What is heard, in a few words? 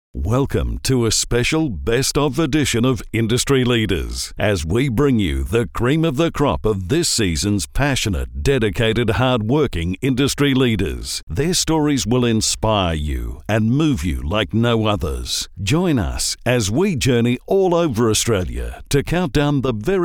Narration